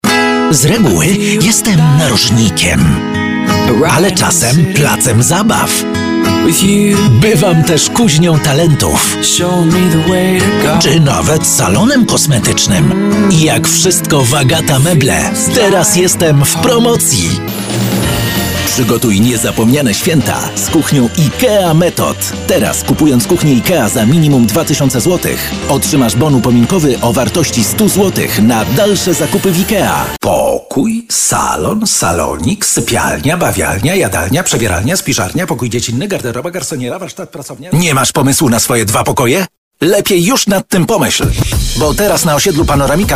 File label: COMMERCIALS